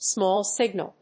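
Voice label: "small signal", English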